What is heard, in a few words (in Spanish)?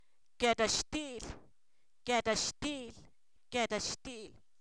pan, Zapoteco, san miguel albarradas; alimentos